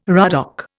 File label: "ruddock", Indian